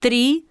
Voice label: Russian count 3; samsung YP-U5; Audio User Interface